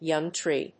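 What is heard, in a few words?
"young tree", English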